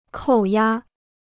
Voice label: "扣押", Chinese

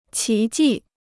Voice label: "奇迹", Chinese

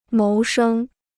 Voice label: "谋生", Chinese

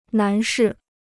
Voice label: "男士", Chinese

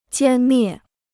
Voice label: "歼灭", Chinese; jiān miè; to wipe out; to crush

